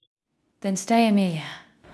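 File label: Voice Acting Spanish Female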